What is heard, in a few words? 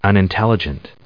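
"unintelligent", English, US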